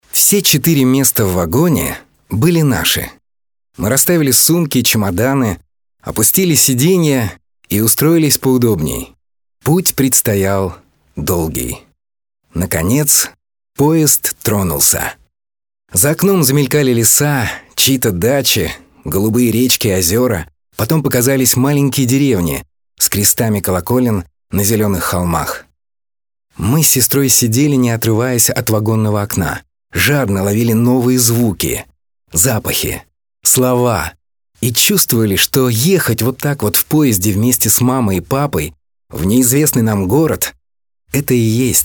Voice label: RUS male, Casual